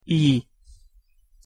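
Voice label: "i hosszu", Hungarian pronunciation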